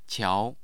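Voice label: "qiao", Mandarin Chinese, tone 2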